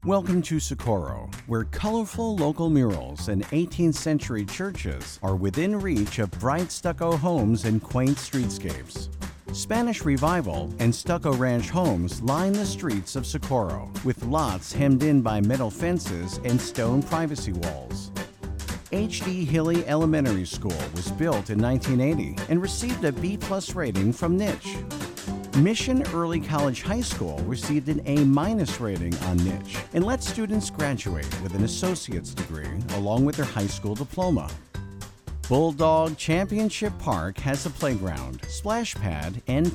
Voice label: english american real estate; professional American voice talent

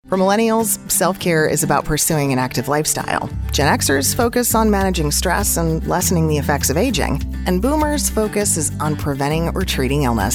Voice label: english american

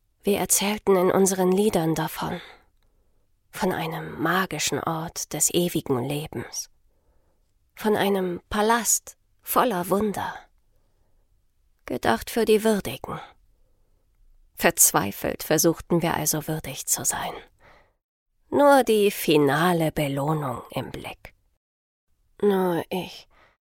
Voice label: german video games

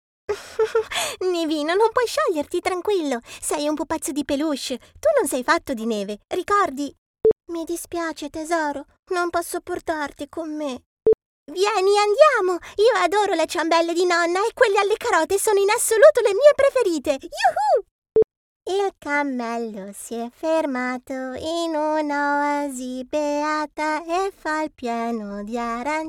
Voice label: italian animation

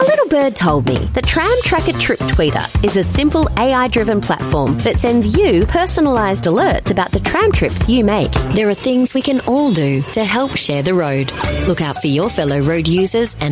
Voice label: english australian commercial

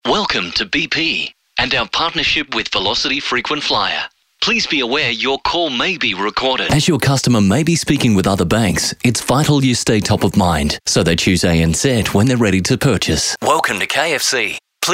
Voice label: English Australian IVR; australian voice actors

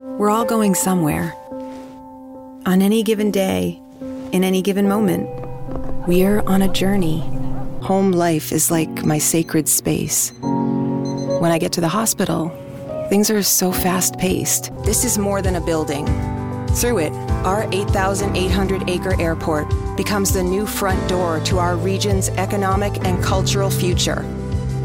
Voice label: English American narration

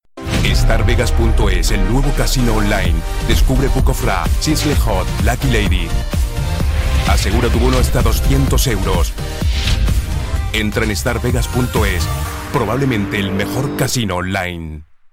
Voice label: spanish promos

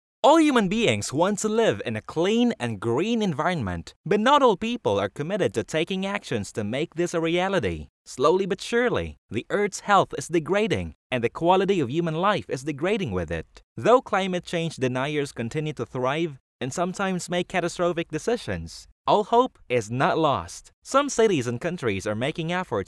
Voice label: english commercial; zertifizierter Synchronsprecher

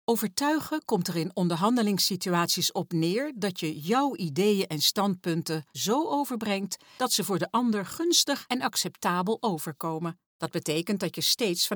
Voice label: dutch e learning

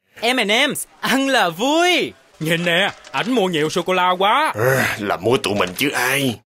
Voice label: vietnamese

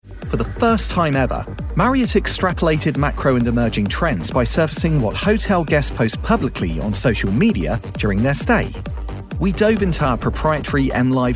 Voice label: english british others

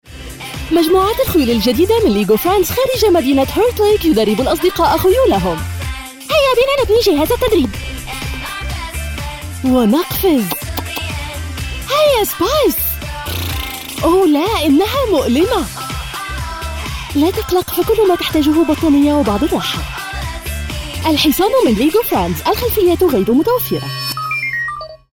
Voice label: arabic cartoon animation

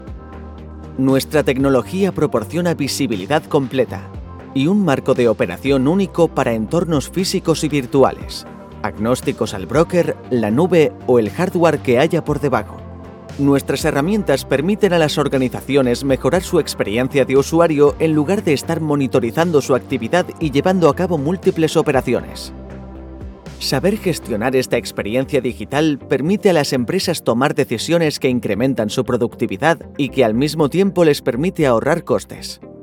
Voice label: spanish corporate videos

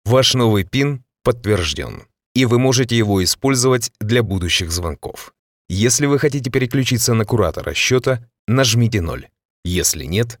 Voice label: russian ivr

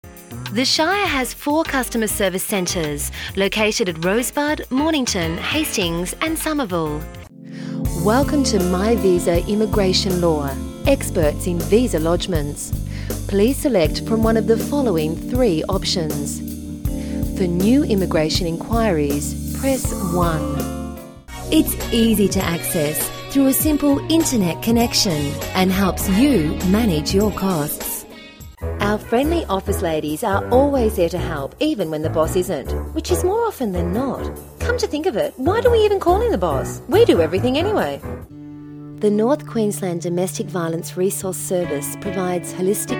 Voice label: english australian ivr

